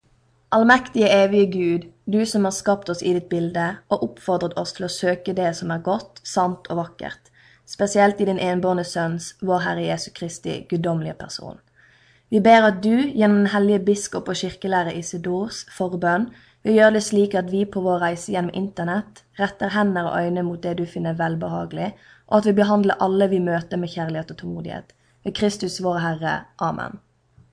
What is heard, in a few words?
internet prayer norwegian